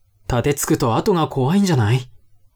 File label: man